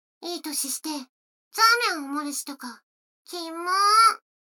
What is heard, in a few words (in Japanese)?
女の子 台詞, ASMR